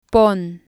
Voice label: "pon", Spanish